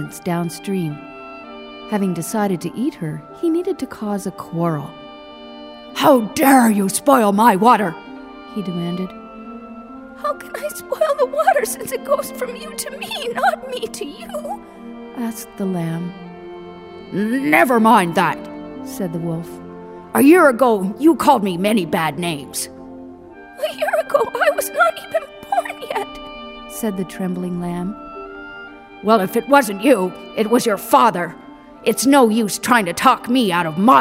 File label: voiceover Fable